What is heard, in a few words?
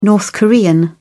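"north korean", British English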